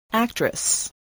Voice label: "actress", English voice